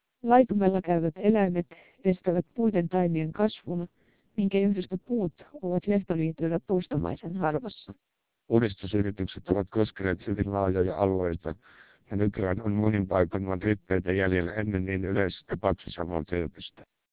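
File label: Finnish speech, TWELP 700 bps vocoder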